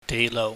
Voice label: "deihlouh", cantonese

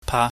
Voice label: "pa", pingyam

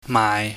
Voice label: "maai", Chinese

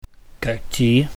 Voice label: "geukji", Cantonese

